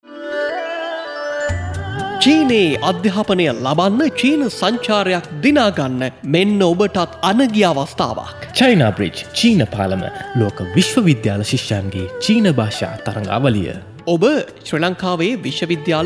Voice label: male promo sinhala